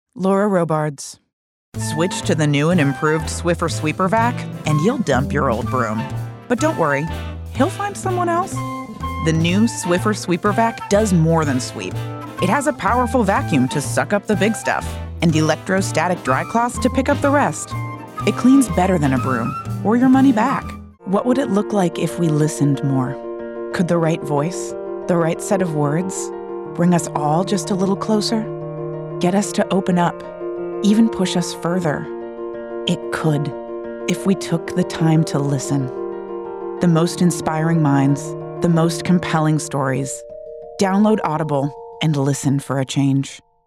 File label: Commercial